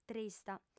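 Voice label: "trista", lingua sarda, female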